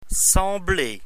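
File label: "sembler", French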